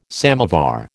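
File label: "samovar", American English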